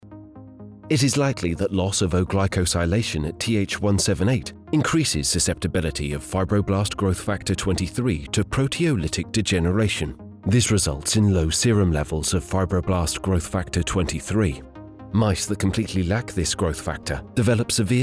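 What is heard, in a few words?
Medical, voice-over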